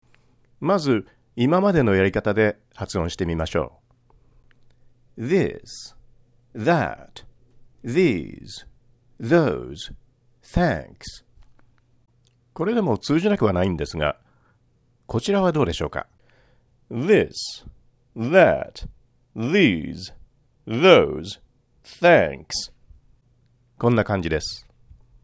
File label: Pronunciation Samples THE